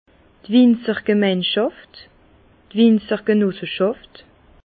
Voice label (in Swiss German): "winzergemeinschaft", Bas Rhin; vin; cave coopérative